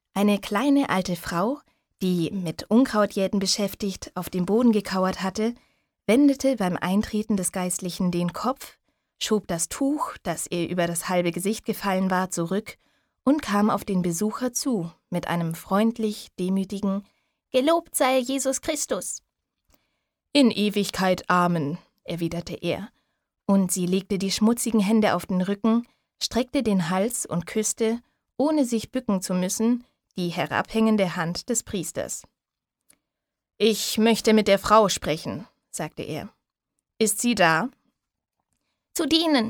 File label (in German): Hörprobe Erzählung